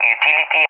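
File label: "utility", English, SPAIN; DCS; Allied Flight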